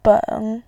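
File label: "beng", Mandarin Chinese, tone 3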